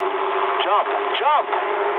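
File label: English bailout mood low; War Thunder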